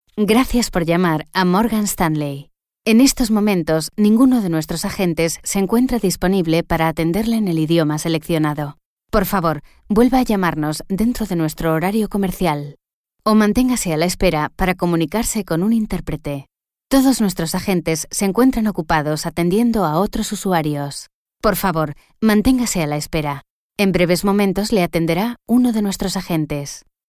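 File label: Spanish, IVR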